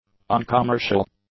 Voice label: "uncommercial", English; adjectives